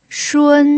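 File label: "shun", Mandarin Chinese pinyin, first tone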